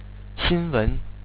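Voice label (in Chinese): "xinwen", Chinese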